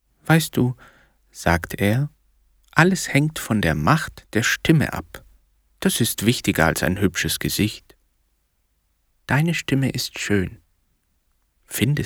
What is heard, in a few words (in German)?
hoerbuch dialog und erzaehler